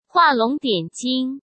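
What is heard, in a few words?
"画龙点睛", Mandarin Chinese; chinese-flashcards; hsk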